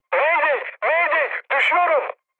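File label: Turkish aircraft destroyed, mood high, speech; War Thunder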